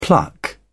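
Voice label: "pluck", British English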